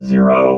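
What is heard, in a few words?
"zero", robot voice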